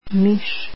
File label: mouse, croatian